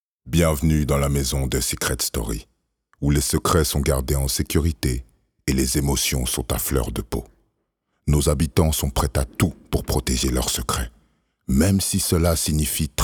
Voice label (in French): Voix off; Secret Story